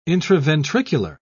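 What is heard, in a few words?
"intraventricular", English, uk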